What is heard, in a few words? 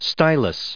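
"stylus", US English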